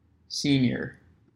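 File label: "senior", US English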